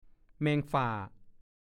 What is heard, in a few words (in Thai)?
"แมงฟ่า", ภาษาโคราช; แมลงปอ